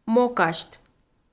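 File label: "мокасьт" Komi, female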